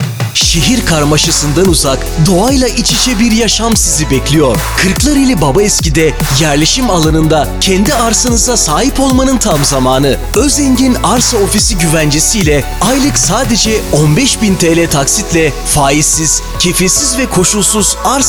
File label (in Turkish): reklam spot; arsa ofisi